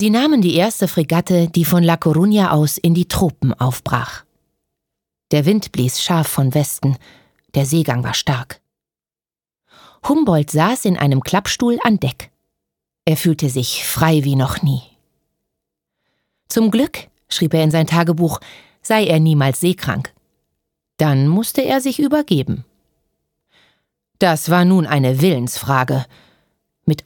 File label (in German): Hoerbuch